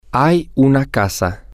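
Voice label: Spanish, there is a house, male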